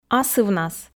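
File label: "асывнас", Komi, female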